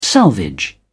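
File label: "salvage", British English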